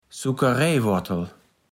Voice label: "Suckeräijwortel", platt

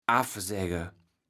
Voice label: "affsägge", kaevels platt

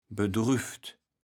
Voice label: "bedrüvt", kaevels platt

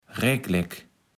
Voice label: "reklek", Platt

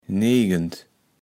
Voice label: "negend", kaevels platt

